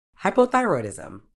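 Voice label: "hypothyroidism", British English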